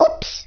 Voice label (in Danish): "Oops", Danish